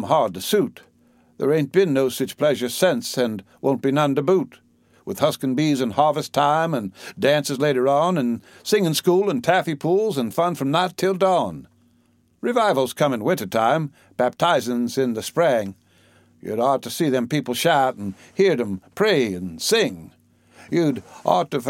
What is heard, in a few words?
possum trot, humour and dialect